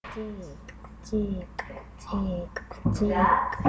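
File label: "jig", spoken word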